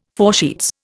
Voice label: "foresheets", Indian

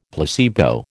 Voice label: "placebo", American

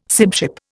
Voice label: "sibship", Indian

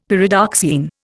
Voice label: "Pyridoxine", Indian; Dictionary

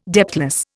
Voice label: "depthless", Indian

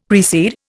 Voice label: "precede", Indian; Dictionary

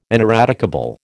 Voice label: "ineradicable", American

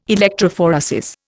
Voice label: "electrophoresis", Indian

